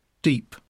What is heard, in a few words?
"deep"